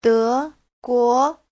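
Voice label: "Déguó", Mandarin Chinese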